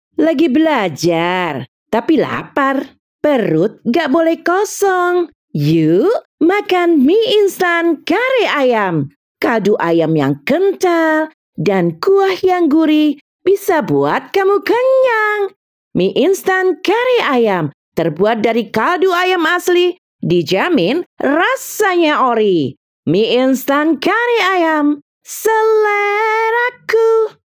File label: CASUAL INDONESIA